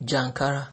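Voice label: "jankara", Nigerian Pidgin, male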